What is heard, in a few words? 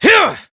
Horse Sprint Adult; Duviri